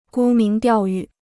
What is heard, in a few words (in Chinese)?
"沽名钓誉", Chinese